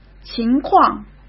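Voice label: "情况", Mandarin Chinese; hsk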